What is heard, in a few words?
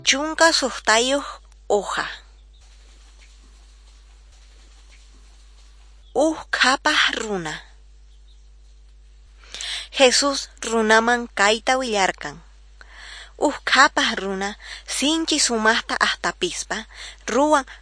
quechua norte de bolivia apolo